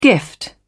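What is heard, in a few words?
"gift", British English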